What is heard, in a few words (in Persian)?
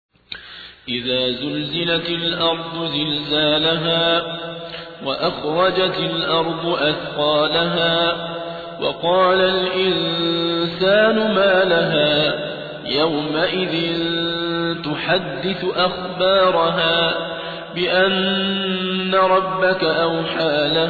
ترتیل سوره زلزله